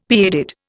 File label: "pigheaded", Indian; Dictionary